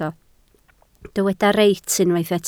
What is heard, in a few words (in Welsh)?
"reit", Welsh